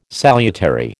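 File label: "salutary", American English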